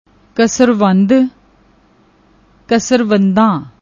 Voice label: "kasrwand", Punjabi; ਕਸਰਵੰਦ